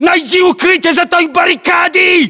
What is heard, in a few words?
order cover barricade, ru; localized english